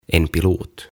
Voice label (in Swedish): "En pilot" "Lentäjä"